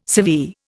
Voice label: "severe", Indian